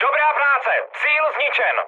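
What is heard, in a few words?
bomb success, Czech, mood high; War Thunder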